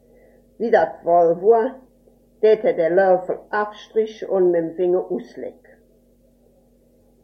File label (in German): Kontraktionen, dialekt; sprache; wuellesheim